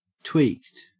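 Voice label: "tweaked", Australian English; pronunciations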